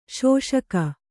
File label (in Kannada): "ಶೋಷಕ", Kannada